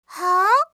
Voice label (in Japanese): "haa", voice, reaction